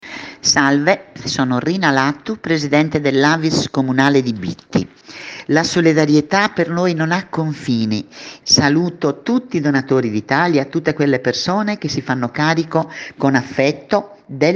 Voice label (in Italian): promo